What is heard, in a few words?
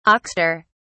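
"oxter", British English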